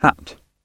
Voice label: "hat", gb